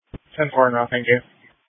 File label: Police Dispatch